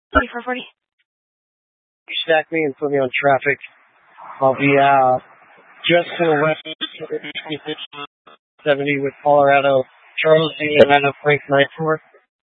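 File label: Police Dispatch, Scanner audio recording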